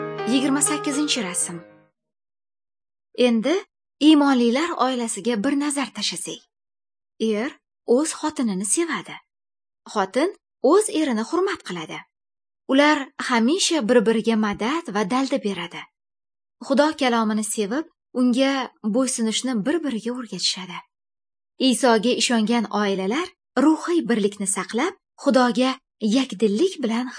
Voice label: good news female uzbek northern

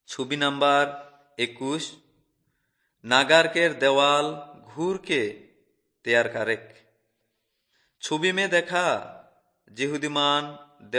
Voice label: urao, drama; kurukh fmp nt drama; on trial for god

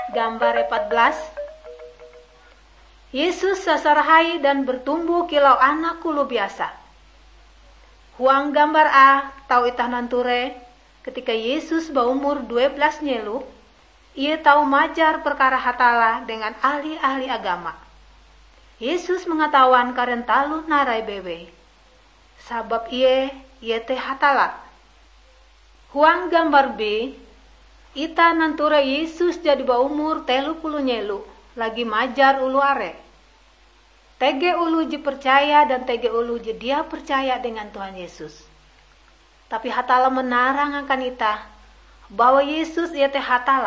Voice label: kabar bahalap good news ngaju, drama; bible

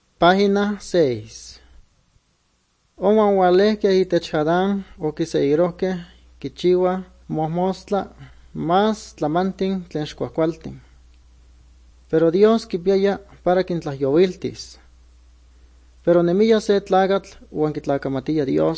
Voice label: good news, nahuatl guerrero chilapa

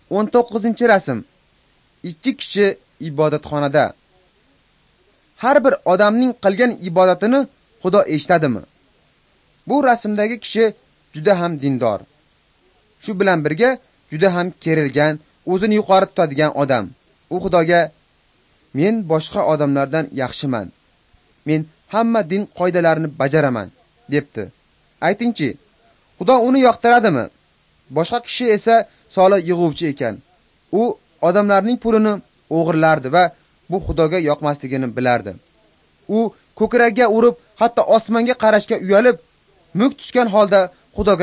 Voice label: uzbek northern

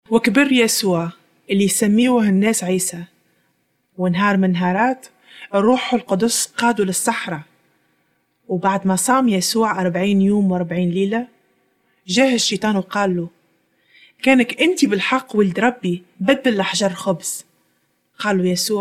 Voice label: jesus temptation tunisian

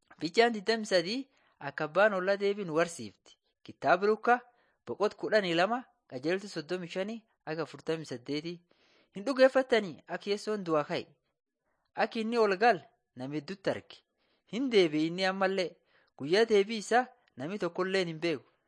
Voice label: borana sakuye

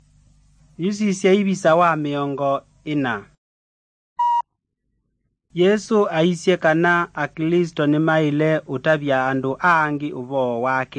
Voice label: kikamba drama; good news; bible

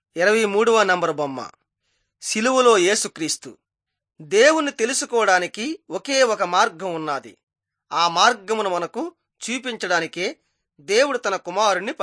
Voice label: telugu bangladesh; on trial for god

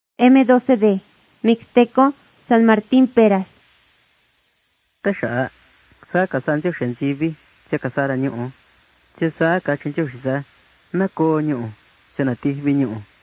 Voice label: mixtec diagnostic spanish mexico

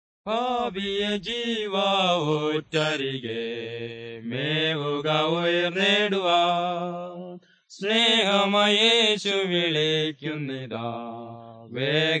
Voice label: words of life malayalam; world bible translation center nt drama

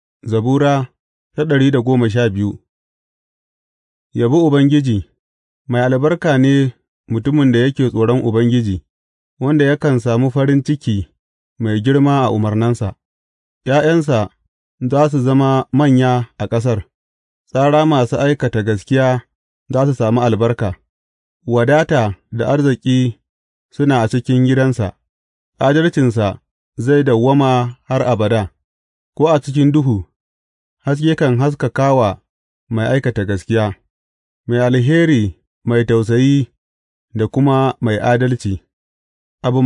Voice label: hausa bible drama, psalms; hausa bsn nt drama

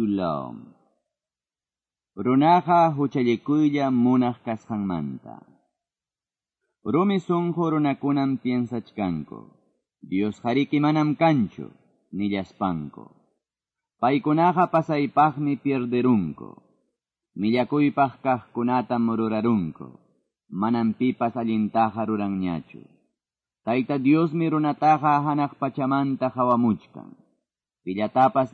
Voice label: salmos, quechua ayacucho

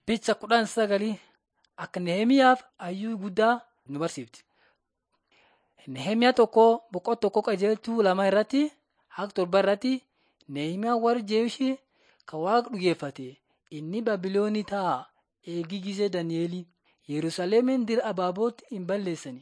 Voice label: borana sakuye

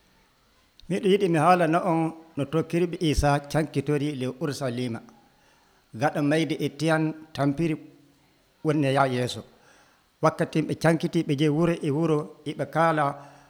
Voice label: western niger fulfulde, drama; fulfulde adamawa; bible stories; diversity expansion de l'eglise à antioch; abc nt drama

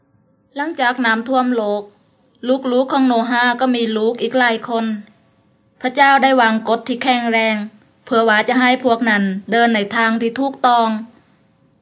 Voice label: words of life creation to christ thai southern

